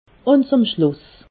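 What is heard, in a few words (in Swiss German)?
"schluss", Alsatian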